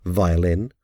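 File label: "violin", English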